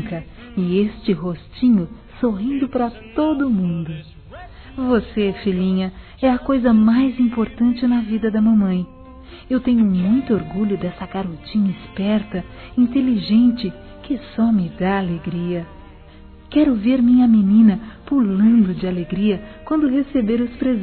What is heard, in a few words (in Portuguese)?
Filha Criança Feminino, Telemensagens Aniversário; Aniversários; Aniv Familiar